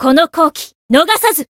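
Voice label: Voice limit break Japanese; isuka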